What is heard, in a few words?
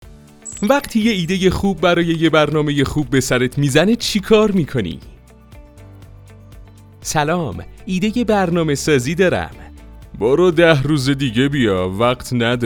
narrator